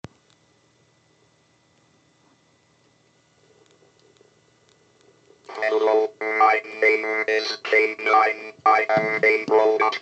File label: k-9 speech